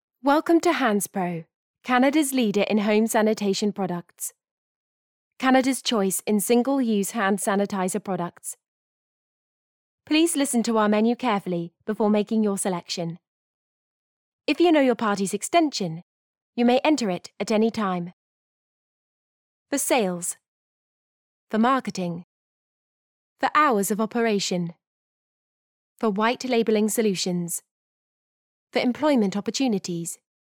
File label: uk